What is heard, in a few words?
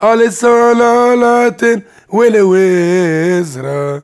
MAN VOICE, Arabian Traditions; USB Soundscan vol.43; AKAI